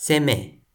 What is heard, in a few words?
"seme", Toki Pona